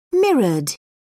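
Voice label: "mirrored", British English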